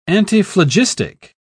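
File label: "antiphlogistic", British English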